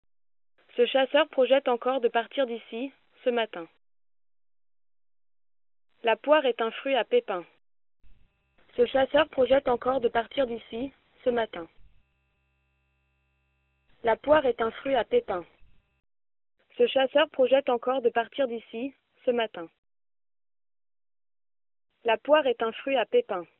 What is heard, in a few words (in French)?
MIC GSM transcodage